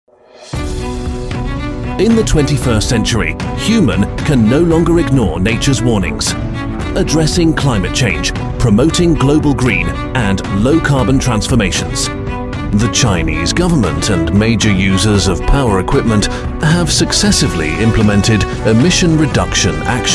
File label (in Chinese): 英式男声 科技类